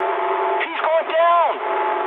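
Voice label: aircraft destroyed mood high, speech English; War Thunder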